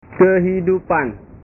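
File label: "kehidupan", Indonesian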